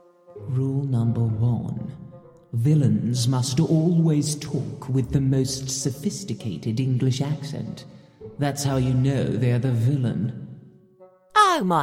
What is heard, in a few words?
animation, recorded at home; 20s-40s; Male; Liverpool; Based in the US